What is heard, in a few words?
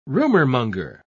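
"rumormonger", English, British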